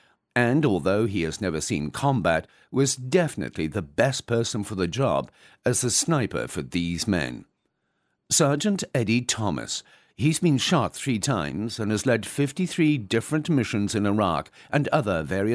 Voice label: Brit War Film

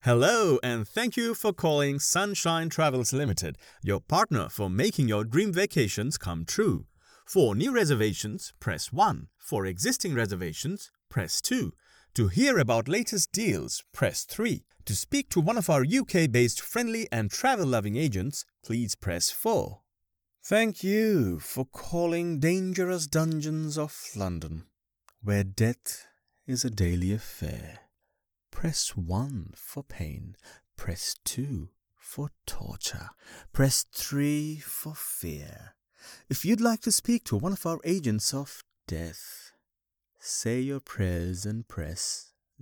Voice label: English Telephony

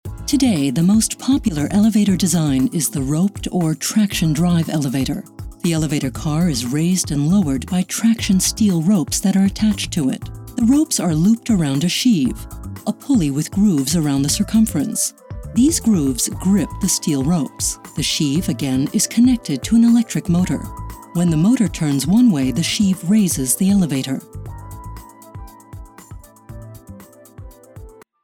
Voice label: Business Presentation

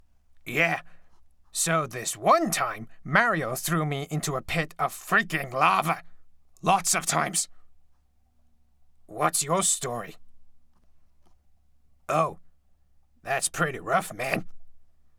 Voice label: Anime character

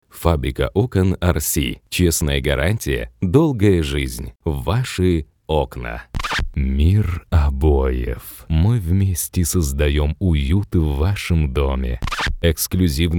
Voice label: Russian no voice processing commercials

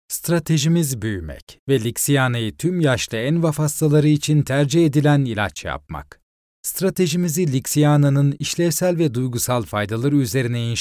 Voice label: E-learning for cardiovascular drug

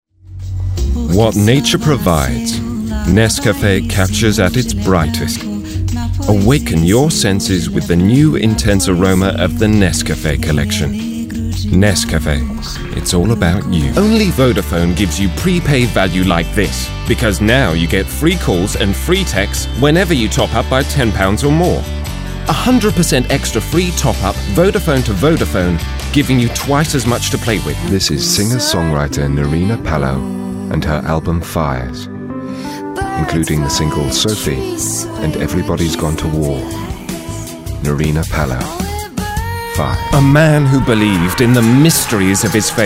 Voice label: Commerical

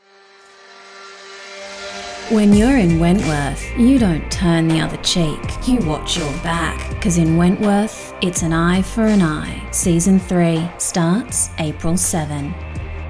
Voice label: TV PROMO

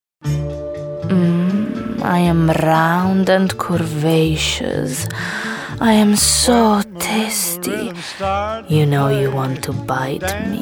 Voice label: Ad; Santa Lucia Galbani

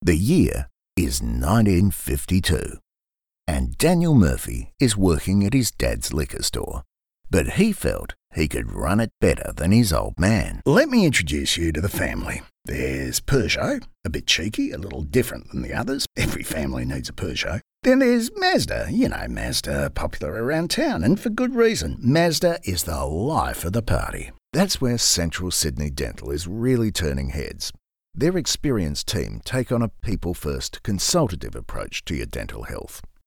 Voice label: Natural

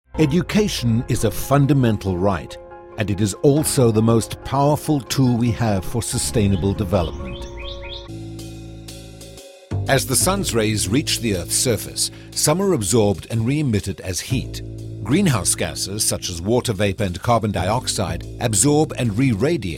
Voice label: Explainer narration

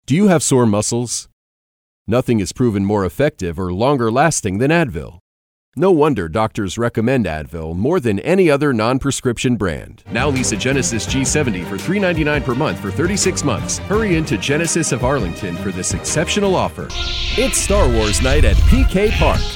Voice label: Commercial Voice Over

